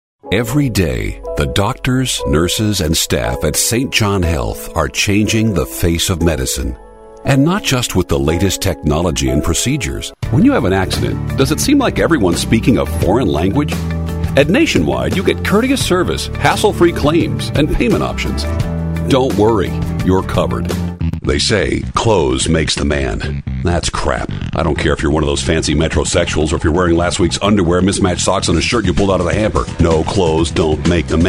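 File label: commercial, male voice talent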